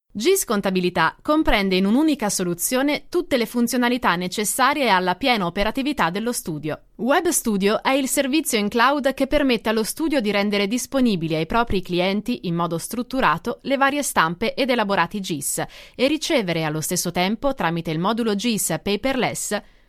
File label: Italian Native